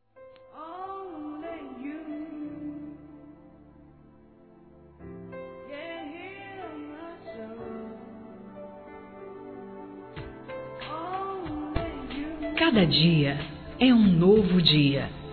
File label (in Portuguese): ANIVERSÁRIO GENRO F, Telemensagens, Fem; Aniversários; Aniv Familiar